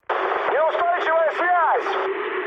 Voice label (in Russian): chat, speech ru; War Thunder